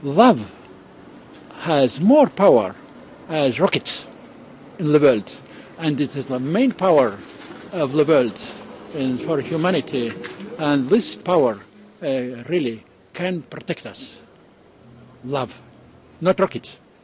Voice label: love not rockets will protect us, male spoken word; MALE MISC